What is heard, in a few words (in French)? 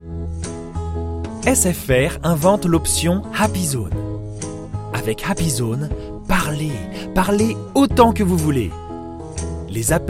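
pub medium naturel, voix off masculine; voix off masculine naturelle, posée, souriante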